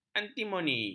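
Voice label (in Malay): "antimoni", Malay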